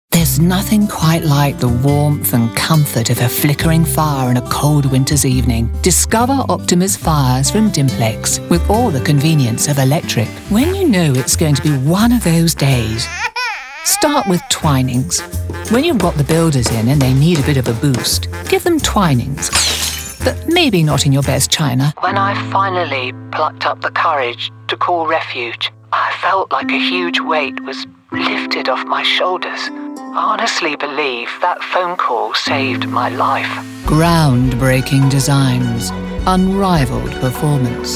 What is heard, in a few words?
Commercial